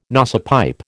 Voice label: "nosepipe", American